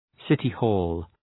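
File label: "city-hall", English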